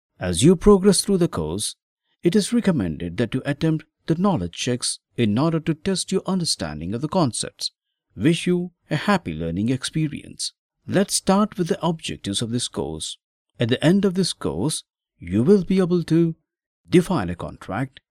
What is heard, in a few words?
male telugu voice over talent english